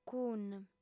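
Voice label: "cun", female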